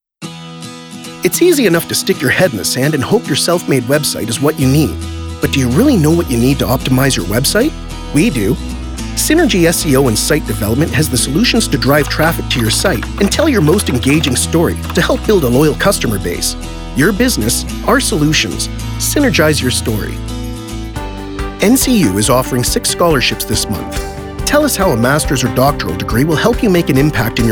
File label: explainer